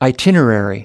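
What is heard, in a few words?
"itinerary", US English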